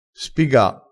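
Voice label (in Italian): "spiga", vocabolario dialettale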